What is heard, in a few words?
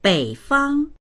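"bei fang", Mandarin; 北方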